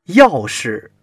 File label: "yao4 shi", Chinese